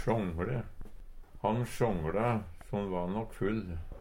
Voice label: "sjångLe", Numedalsmål; Han sjångLa, so han va nøk full.

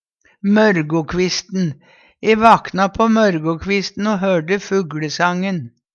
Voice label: morgokvisten, Numedalsmål; tidleg om morgonen; E vakna på mørgokvisten o hørde fugLesangen.; Uttrykk

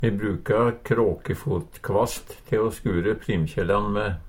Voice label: krakefotkvast, Numedalsmål; Substantiv hankjønn; Hushald, mat, drikke

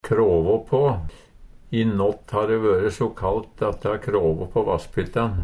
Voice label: kråvå på, Numedalsmål

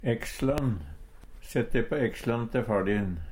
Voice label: "eksjlan", Numedalsmål; Substantiv hokjønn; Sett de på eksjlan te far din.

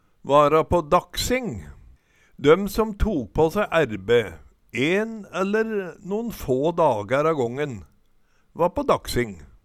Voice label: vara pa daksing, Numedalsmål

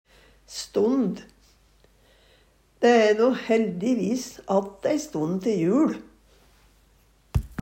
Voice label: stond, Numedalsmål

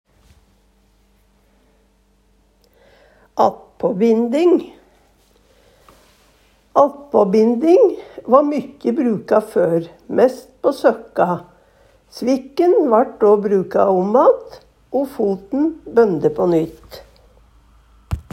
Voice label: "attpåbinding", Numedalsmål

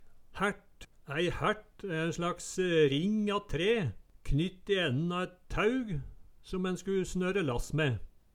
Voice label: "hært", Numedalsmål

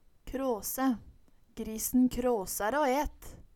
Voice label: "kråse", Numedalsmål

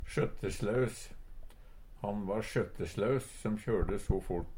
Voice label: "sjøtteslaus", Numedalsmål; Han va sjøtteslaus som kjørde so fort.; Adjektiv

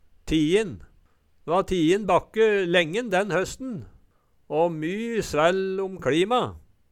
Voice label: tiin, Numedalsmål

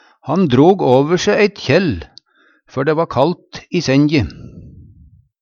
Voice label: kjæll, Numedalsmål

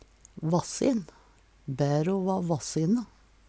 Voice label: vassin, Numedalsmål; Bæro va vassine.; Adjektiv

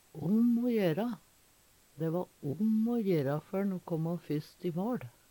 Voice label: om o jera, Numedalsmål; veldig viktig; Uttrykk

